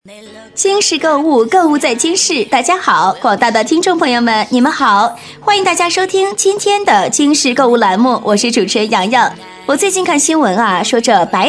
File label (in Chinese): 女声电台主持